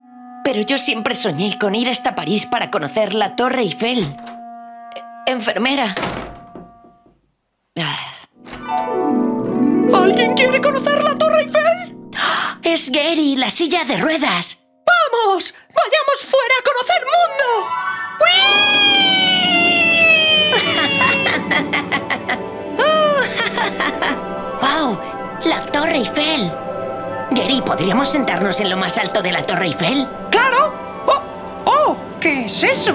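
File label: acting Cartoon comedy optimism child fantasy high pitched character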